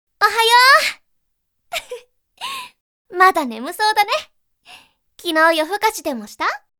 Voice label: girl ohayou

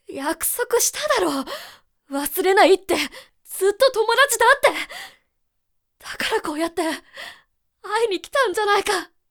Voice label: boy saikai

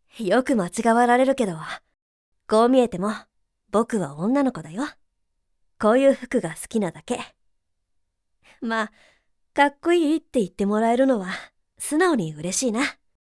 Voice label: girl syounenhuu

